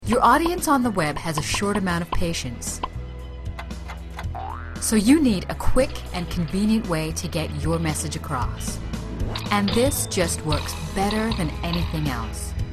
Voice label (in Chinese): 网站宣传片